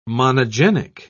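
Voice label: "monogenic", English, British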